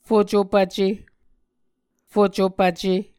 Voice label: "fucopvce", muscogee